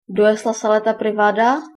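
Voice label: toilet, Lingua Franca Nova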